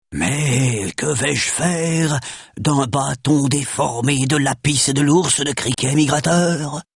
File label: Witch Doctor Pissed; Warcraft 3